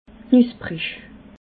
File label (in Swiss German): "knuschprig", alsace